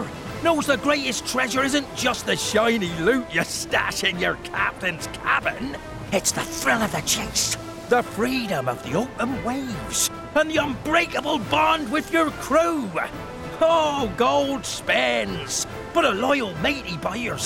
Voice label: Video Game Pirate

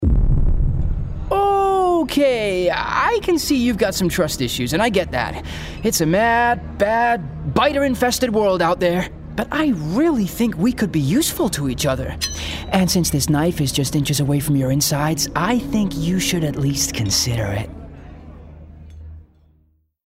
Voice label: Gaming Teen Sidekick US; Gaming Character – American Teen Sidekick

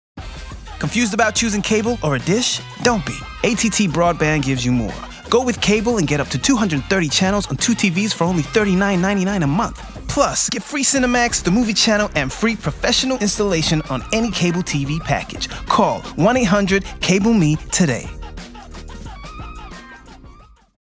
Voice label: US accent

